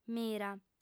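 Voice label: "mira" Sardinian, female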